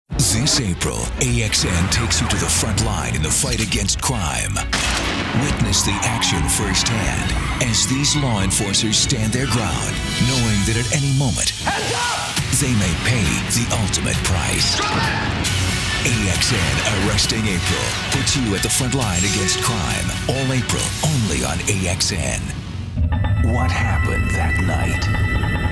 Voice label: Promos

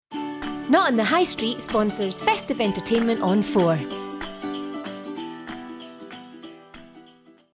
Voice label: Commercial; Not On The High Street

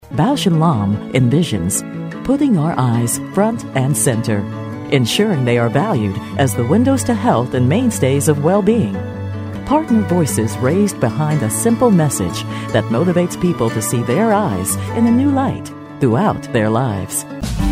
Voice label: corporate image

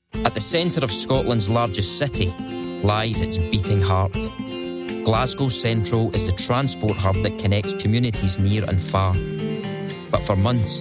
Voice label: Documentary Extract

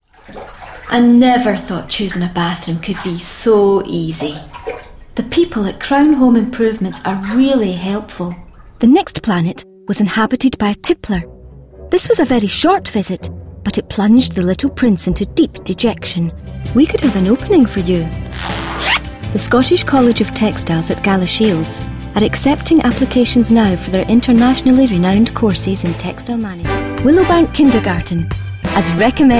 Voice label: Commercial

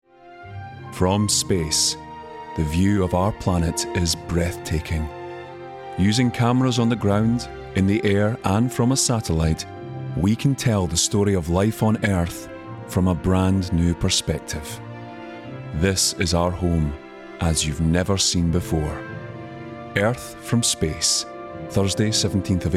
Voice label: Promo; BBC 1

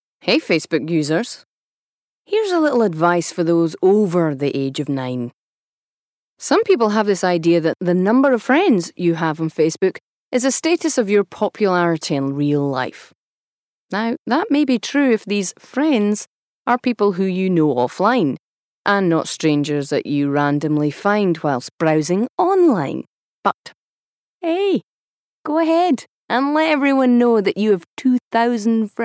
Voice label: Commercial; Facebook

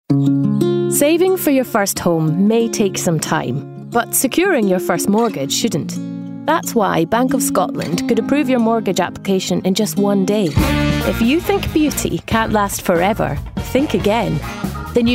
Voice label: Commercial Voice Reel

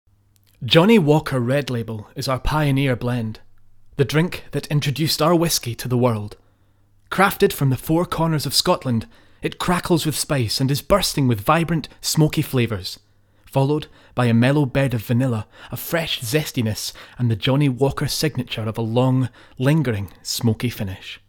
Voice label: Corporate Whiskey; Johnnie Walker

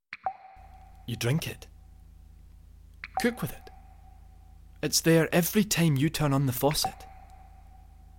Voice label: Safe Water Commercial

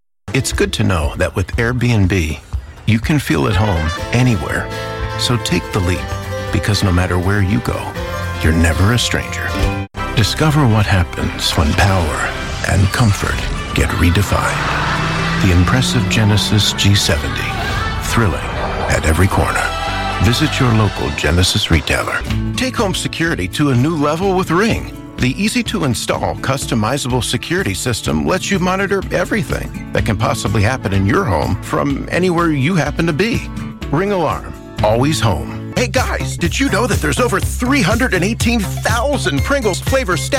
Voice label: Commercial

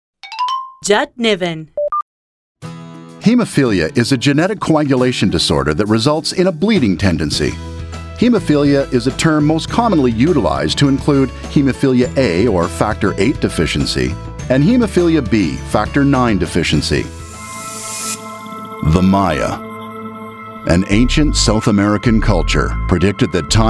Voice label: narration

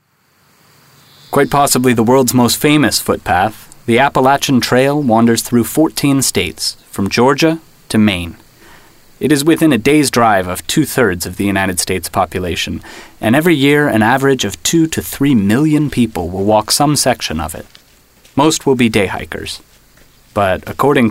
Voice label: Narration

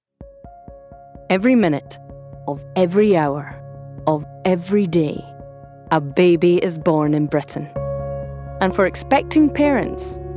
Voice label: TV Ident; One Born Every Minute

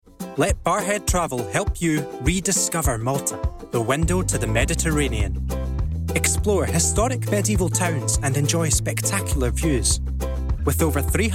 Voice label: Commercial; Barrhead Travel

